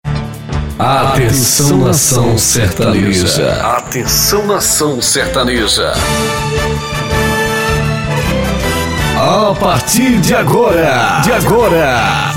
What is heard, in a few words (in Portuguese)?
abertura, locutor